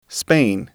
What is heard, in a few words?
"Spain", English